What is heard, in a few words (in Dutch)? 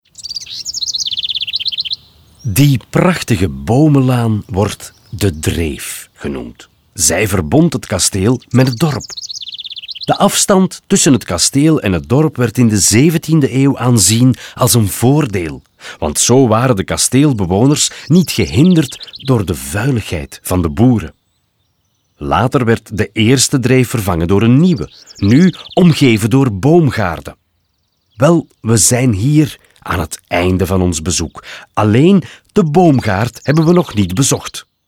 audioguide nl enfant; jardin; modave